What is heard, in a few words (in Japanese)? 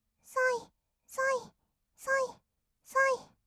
そいそい, kawaii voice; ASMR; 合いの手; 1200 kawaii voice!!